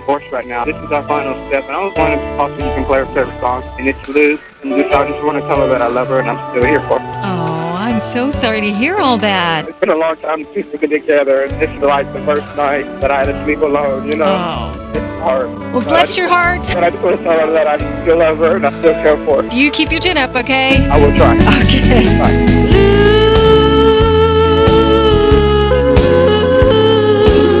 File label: country radio aircheck